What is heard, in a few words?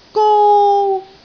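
"gou1", Cantonese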